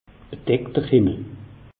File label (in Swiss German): "bedeckter himmel", alsace; meteo